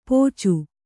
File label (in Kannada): "ಪೋಚು", Kannada